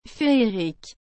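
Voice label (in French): "féerique", French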